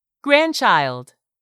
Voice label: "grandchild", English; 3000 words